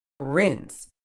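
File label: "rinse", medical English, British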